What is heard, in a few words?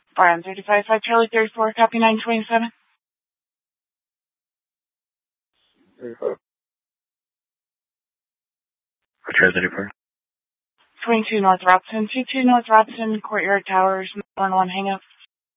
Central Dispatch, Mesa PD